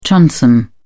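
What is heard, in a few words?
"transom", British English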